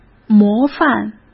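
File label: "模范", Mandarin Chinese; chinese flashcards; hsk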